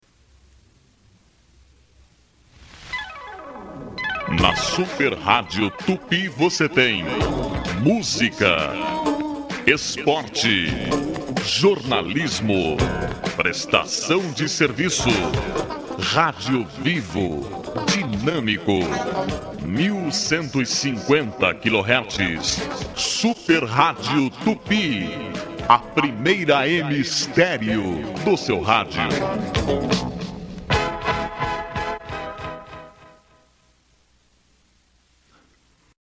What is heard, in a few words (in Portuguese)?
Vinheta; Radio Tupi; 1150 kHz